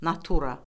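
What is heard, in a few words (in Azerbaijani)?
"natura", Azerbaijani; Orfoqrafiya Lüğəti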